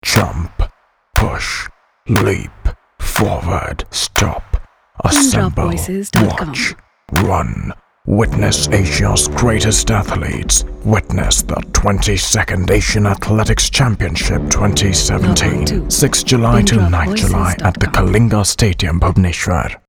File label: English